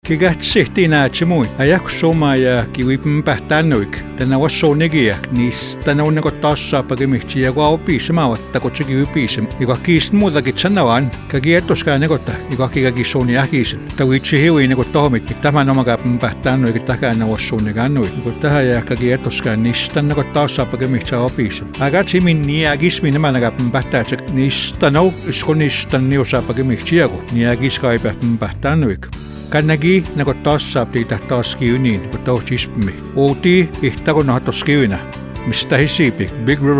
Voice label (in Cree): recruitment ad Cree; Take Part; MBC